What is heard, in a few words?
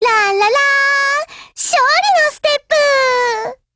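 win, Japanese; GF Alarm; HK45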